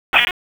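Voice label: Raleigh Police North District Tactical, p25; wake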